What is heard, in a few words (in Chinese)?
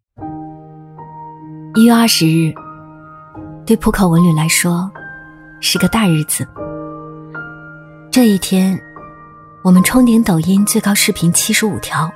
女-走心旁白 情绪舒缓; 文旅; 浦口文旅